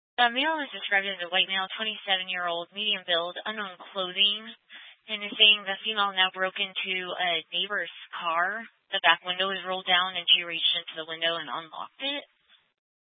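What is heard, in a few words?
Sheriff Dispatch, Scanner audio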